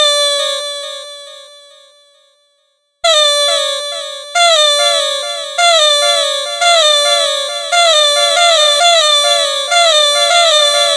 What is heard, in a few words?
Horror Voice Ringtone